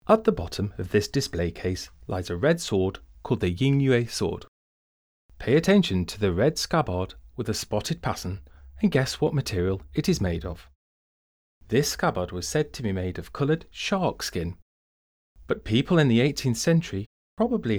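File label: English, audio guide; architechure collection heritage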